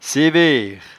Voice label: "severe", patois jura